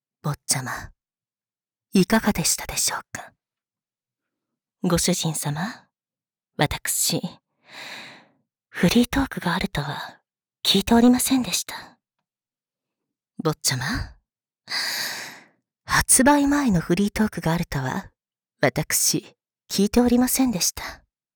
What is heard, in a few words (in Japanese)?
フリートーク